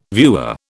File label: "viewer", British